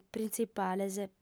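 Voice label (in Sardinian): "printzipales", female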